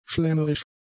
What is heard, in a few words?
"schlemmerisch", German; adjectives